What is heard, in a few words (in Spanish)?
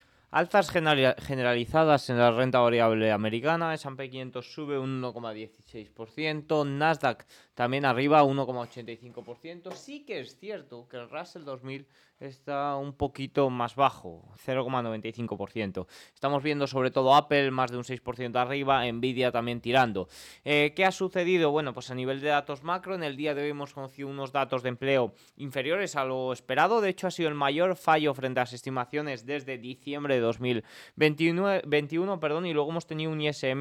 radio